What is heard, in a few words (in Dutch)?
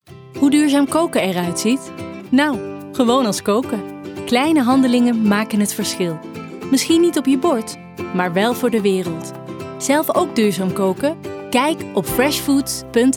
Commercials